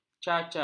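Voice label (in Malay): "cacar", Malay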